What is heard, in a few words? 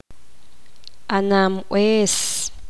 poqomam; hermana